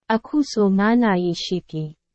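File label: Burmese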